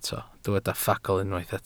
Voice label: "ffagl" Welsh

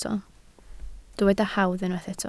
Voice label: "hawdd", Welsh

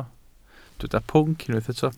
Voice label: "pwnc", Welsh

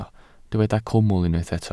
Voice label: "cwmwl" Welsh

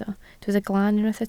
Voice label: "glan", Welsh

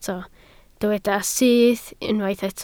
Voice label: "syth", Welsh

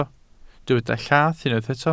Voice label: "llath", Welsh

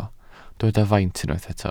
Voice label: "faint", Welsh

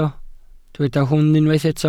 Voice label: "hwn" Welsh

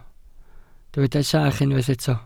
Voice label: "sach", Welsh

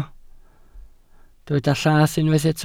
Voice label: "llath", Welsh

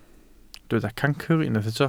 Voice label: "cancr", Welsh

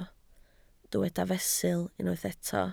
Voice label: "fesul", Welsh

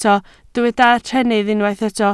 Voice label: "trennydd", Welsh

